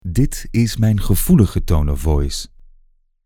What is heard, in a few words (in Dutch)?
Tone of Voice Gevoelig